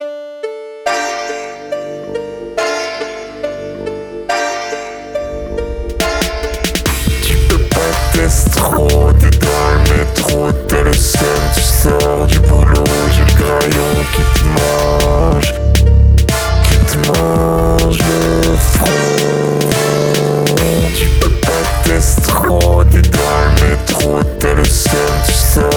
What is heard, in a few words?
pitch mod; graillon